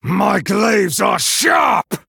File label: Male Night Elf